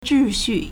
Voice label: "zhi4 xu4", Chinese

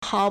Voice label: "hao2", Chinese

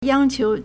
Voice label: "yang1qiu2", Chinese

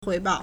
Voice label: "hui2 bao4", Chinese

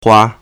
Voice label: "hua1", Chinese

